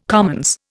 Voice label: "commons", Indian